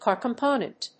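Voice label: "car component", English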